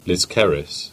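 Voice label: "Liskeard", Cornish; place-names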